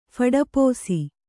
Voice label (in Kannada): "ಫಡಪೋಸಿ", Kannada